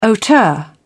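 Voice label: "hauteur", English, uk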